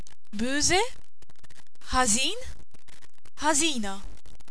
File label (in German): "boese", maltesisch